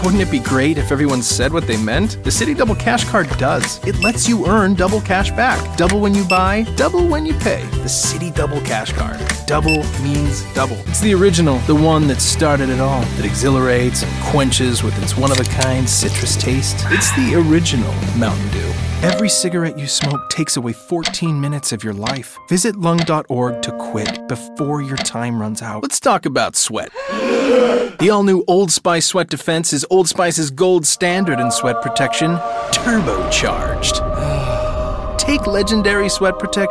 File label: Commercial